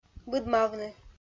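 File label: "быдмавны", Komi-Zyrian, female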